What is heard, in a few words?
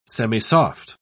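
"semisoft", English, British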